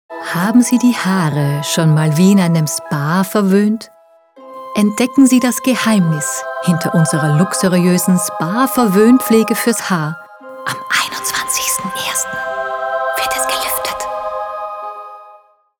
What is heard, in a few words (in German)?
Werbung Spa; Sprecherdatenbank